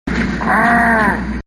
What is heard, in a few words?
elite pissed; dialogue; elites